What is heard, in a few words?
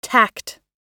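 "tact", US English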